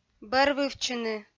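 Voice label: "бӧрвывтчыны", Komi, female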